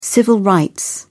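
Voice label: "civil rights", British English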